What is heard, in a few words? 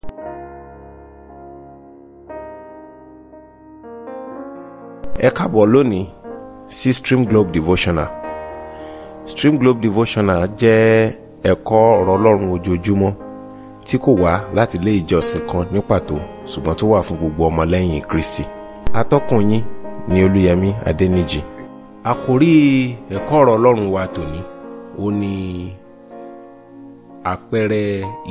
Devotional Audio Yoruba